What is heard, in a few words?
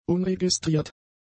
"unregistriert", German; adjectives